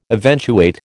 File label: "eventuate", American